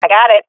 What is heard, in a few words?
defusing, swat fem; vo; Counter Strike Model Pack; CS:GO